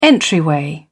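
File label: "entryway", English, British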